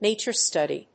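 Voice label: "nature study", English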